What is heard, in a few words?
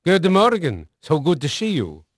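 Dutch male, voice; Pirates Of The Caribbean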